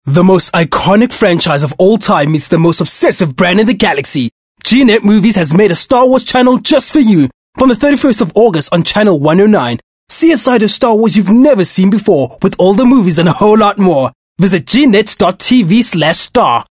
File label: English; Skill TV Promo